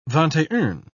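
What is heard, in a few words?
"vingt-et-un", English, British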